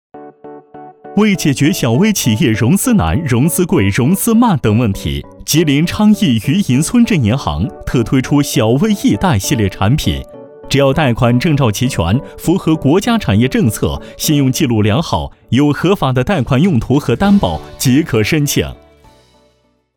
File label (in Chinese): 轻快专题银行